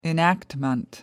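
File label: "enactment", British English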